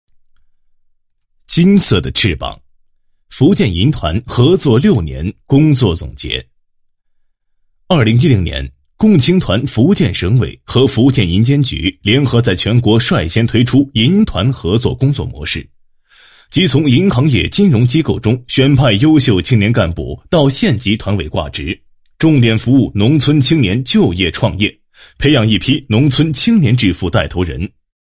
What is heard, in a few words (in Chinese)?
男 金融业讲述 宣传介绍; 银团合作工作模式